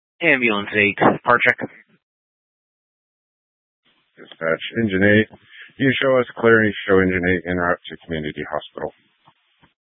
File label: Grand Junction Fire Department Dispatch